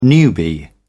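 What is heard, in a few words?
"newbie", British English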